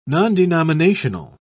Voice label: "nondenominational", en uk